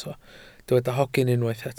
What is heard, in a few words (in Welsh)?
"hogyn" Welsh